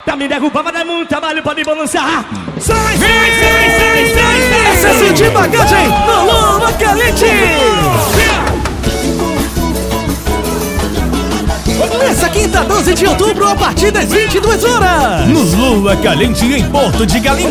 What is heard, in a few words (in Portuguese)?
locuções